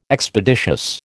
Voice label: "Expeditious", American